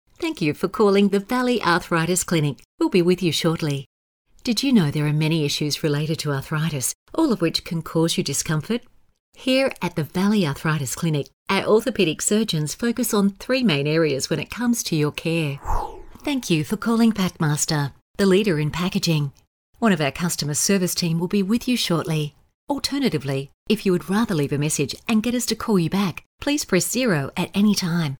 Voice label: On Hold